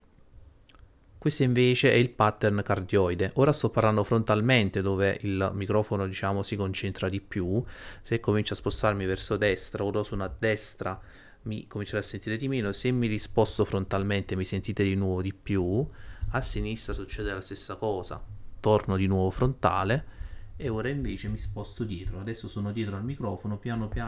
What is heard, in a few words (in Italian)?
Test con pattern cardioide